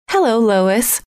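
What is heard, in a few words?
hello, female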